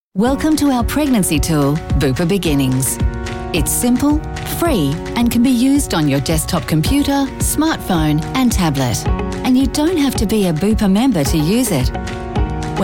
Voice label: narration corporate